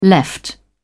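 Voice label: "left", British English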